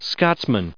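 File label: "scotsmen", English, us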